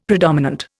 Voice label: "predominant", Indian; Dictionary